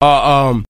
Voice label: "uh um", Voice

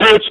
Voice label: "bitch", irate black man; Voice

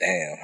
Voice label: "damn", expletives; god damn

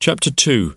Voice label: chapter two male; Voice; numbers and letters

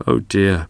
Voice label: "oh dear", expletives, g-rated swears

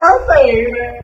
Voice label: "oh baby", ghost

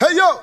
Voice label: "hey yo", voice; ooh ahh

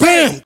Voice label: "bang", Voice; ooh ahh

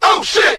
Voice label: "oh shit" group of men; Voice; expletives

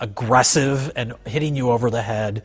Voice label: agressive and hitting you over the head

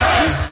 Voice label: "hey", group of men

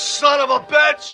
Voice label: son of a bitch male; Voice

